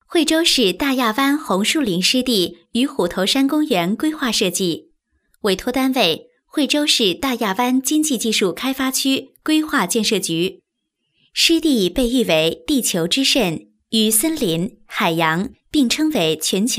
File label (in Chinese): 女国 多媒体 项目规划 湿地公园; 惠州湿地公园